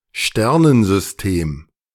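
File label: "Sternensystem", German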